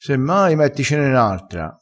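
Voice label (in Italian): "chiama e rispondi", dialettale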